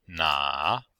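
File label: "na3", Chinese; Cours de Chinois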